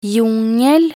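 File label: "jungel", Norwegian Bokmål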